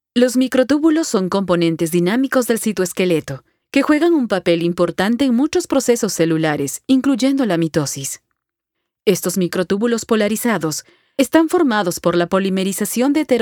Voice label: medical narration spa